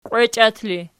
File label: "qwechiat", Archi